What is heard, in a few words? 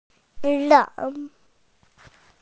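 "leng3", Mandarin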